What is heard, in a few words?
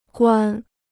"关", Chinese